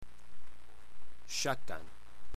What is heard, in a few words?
"scacan", englisc